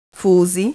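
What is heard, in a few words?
"fuzy", Slovak; tdegames; ktuberling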